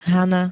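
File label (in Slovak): "hana", Slovak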